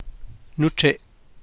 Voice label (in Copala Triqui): "nuchre̱'", Copala Triqui